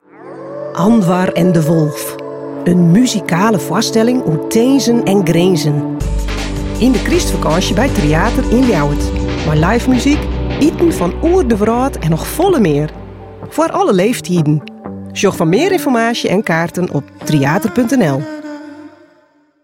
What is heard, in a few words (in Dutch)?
Radiospot; Anwar en de Wolf; Tryater